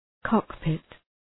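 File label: "cockpit", English; {‘kɒk,pıt}; Ουσιαστικό; καμπίνα πιλότου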